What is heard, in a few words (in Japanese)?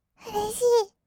"うれしいー", kawaii voice; ASMR; 色々なワンショット